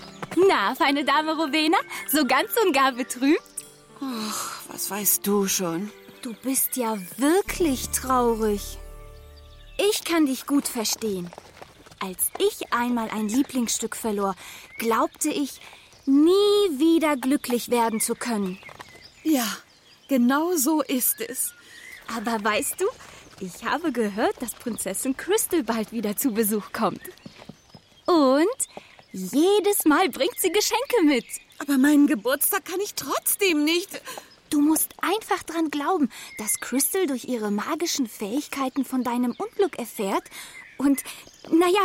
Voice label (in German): hoerspiel rolle; filly; prettyanna